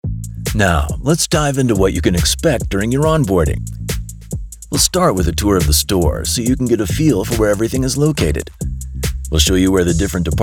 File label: eLearning; Onboarding